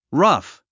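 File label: "rough", English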